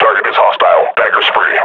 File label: under attack, combine grunt